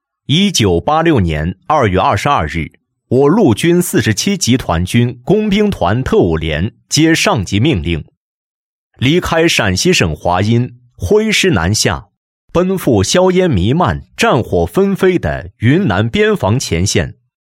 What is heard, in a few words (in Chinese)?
男, 建军题材, 陆军, 汇报片